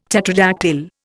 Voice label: "tetradactyl", Indian